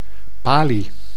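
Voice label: "Pali", Dutch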